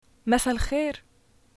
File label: good evening, arabic levantine